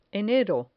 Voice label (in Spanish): "enero", Spanish